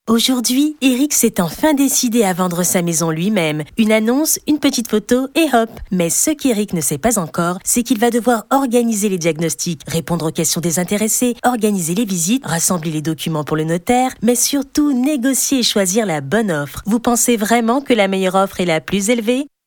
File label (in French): institutionnel naturel explicatif démonstratif, voix off; weezhome